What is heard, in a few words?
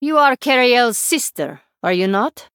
Female Draenei